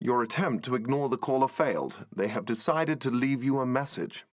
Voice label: Voicemail; Jarvis

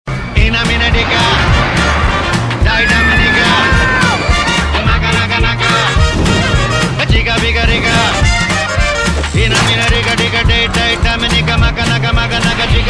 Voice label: Ads; Ipl 5

